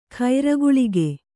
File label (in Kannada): "ಕರ್ಕೋಲಕ", Kannada